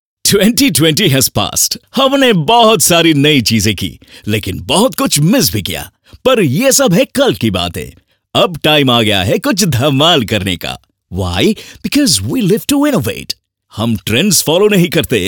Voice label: HINGLISH Adfilm; CrushKings